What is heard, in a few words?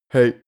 male hey short